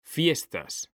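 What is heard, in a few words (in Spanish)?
"fiestas", Spanish; mekanta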